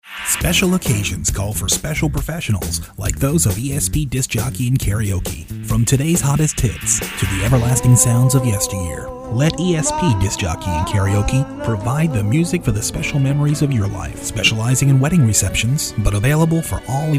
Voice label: 30 Second Commercial